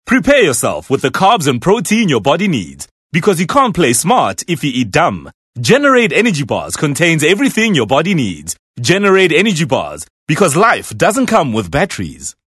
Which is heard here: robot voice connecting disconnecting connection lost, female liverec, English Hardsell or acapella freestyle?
English Hardsell